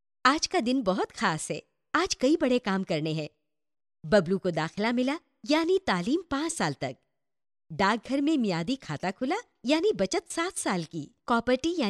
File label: URDU FEMALE